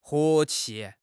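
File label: "和气", Mandarin Chinese; HSK6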